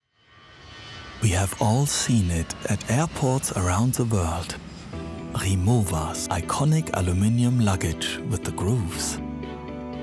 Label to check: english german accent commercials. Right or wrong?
Right